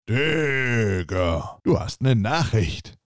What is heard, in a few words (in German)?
klingelton digger du hast ne nachricht